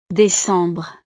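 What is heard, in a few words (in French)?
"decembre", French; los meses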